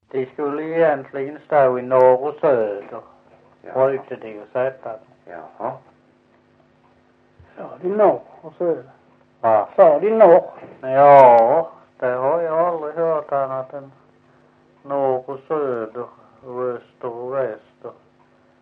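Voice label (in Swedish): Visseltofta Skåne, svenska sagor dialekter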